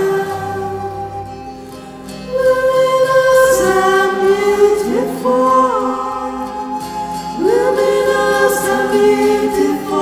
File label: Beloved Tara Meditation